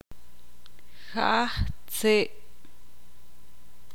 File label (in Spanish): "aldea", ixil